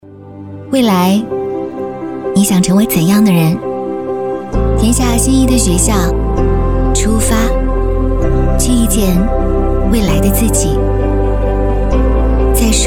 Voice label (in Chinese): 女 学校宣传 招生; 龙岩学院